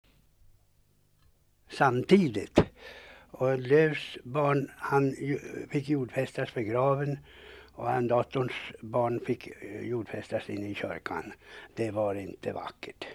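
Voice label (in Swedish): Funbo Lagga Uppland, dialekter; Folkminnen; Svenska sagor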